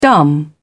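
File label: "dumb", British English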